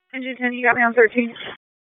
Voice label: PS TAC, p25